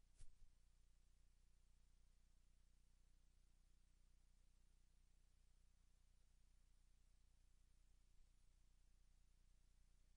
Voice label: English